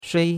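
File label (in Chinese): "shui1" Chinese; Cours de Chinois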